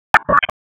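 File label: Police Southwest District Tac, radio; Raleigh; Tac 1; p25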